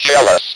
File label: "JEALOUS", Speak and Spell